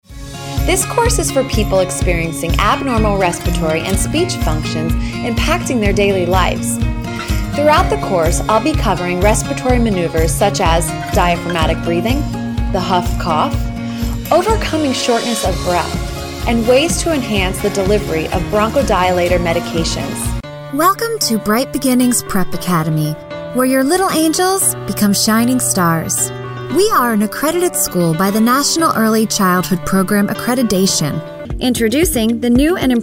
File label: Narrations